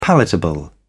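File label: "palatable", British English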